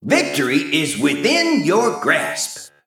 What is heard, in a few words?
Male Mech First Place